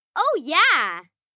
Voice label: Oh Ya, Reaction, 1 girl, 9 yrs